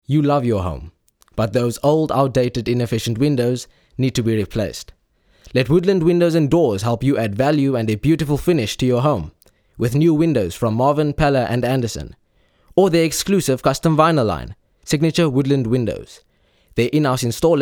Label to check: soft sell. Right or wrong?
Right